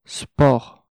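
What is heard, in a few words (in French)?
"sport", French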